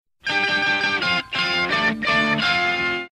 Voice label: japanese